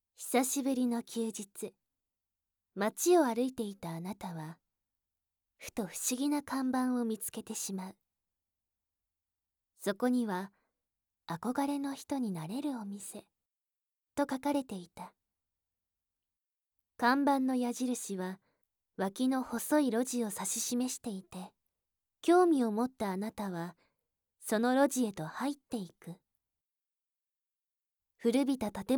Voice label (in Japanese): ナレーション, 効果音なし; 環境音なし版